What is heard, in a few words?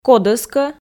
"кодӧскӧ", Komi